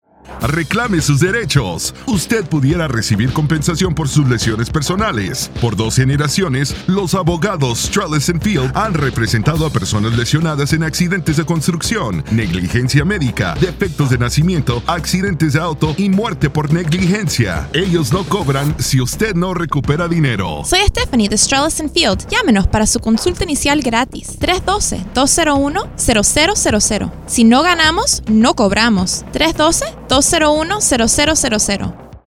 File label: spanish ad; strellis field; univision; negligence